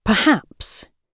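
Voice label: "perhaps", British English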